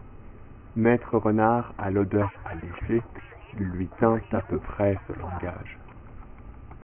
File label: long example separated french